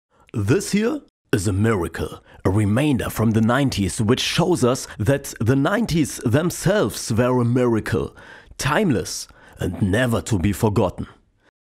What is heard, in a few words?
English advertising